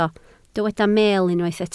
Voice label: "meel", Welsh

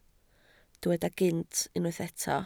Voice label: "gynt", Welsh

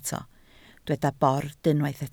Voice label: "bord", Welsh

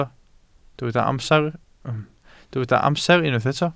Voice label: "amser", Welsh

